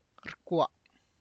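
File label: tlacochahuaya, Zapotec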